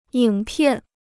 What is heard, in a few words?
"影片", Chinese